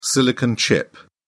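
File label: "silicon chip", British English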